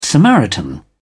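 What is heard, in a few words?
"samaritan", British English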